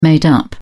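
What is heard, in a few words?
"made-up", British English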